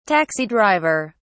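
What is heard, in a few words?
"taxi driver", British English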